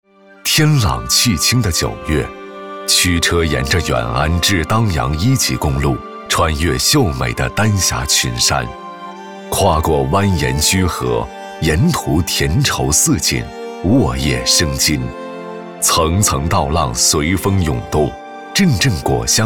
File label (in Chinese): 大气抒情